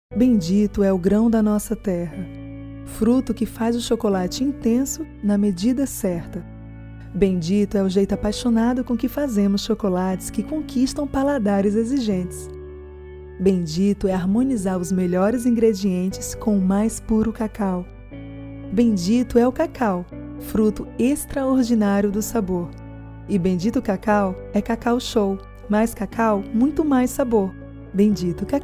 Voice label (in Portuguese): spot, layout publicitario; Cacau Show